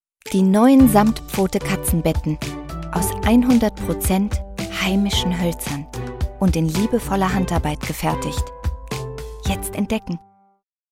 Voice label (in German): Werbespot; Samtpfote